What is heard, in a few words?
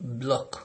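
"block", Nigerian Pidgin, male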